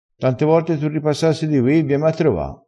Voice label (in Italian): "tante vorte", crespinese